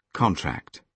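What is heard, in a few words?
"contract", British English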